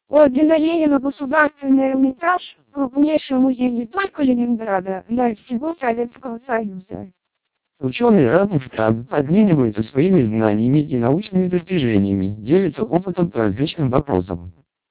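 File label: twelp 400 Russian, vocoders lowrate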